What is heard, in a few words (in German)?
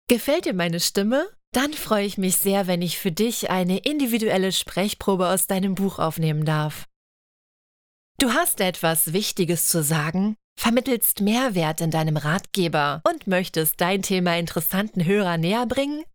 HORBUCH